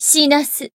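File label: attack, Japanese; toova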